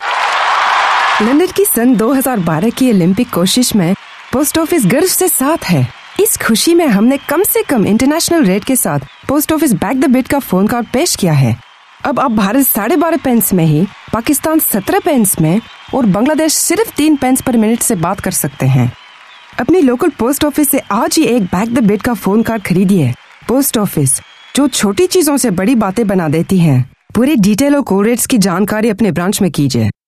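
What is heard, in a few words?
Hindi; Male, Home Studio, 30s-40s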